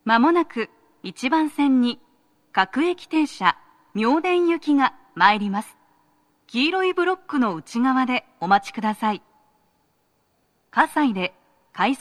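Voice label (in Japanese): 接近放送, 女声, 各駅停車 妙典行き (混雑付帯), 葛西で、快速 東葉勝田台行きの通過待ちを致します。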